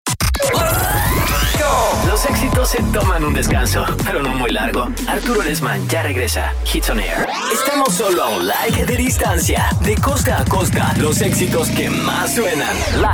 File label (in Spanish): Locutor Radio Imaging en Espanol Radio Imaging Latino Panama Locutor Panameno